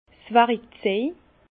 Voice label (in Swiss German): "warikzej", Bas Rhin; les outils du vin